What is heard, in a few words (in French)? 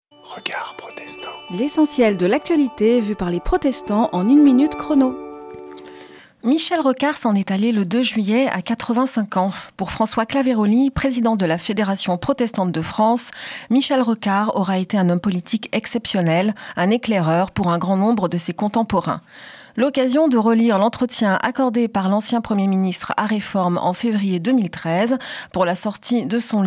Spot radio; Regards protestants